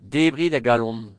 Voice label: bon appetit, Breton; Debrit a galon!

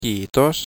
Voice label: thanks, finnish

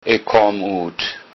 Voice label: i'm from, low saxon

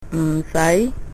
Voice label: thanks response, cantonese

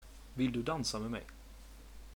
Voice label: dance, swedish

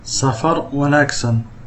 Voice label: bon voyage, somali

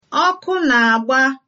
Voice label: fire, igbo

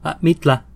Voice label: you're welcome nahuatl

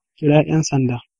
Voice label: police hausa

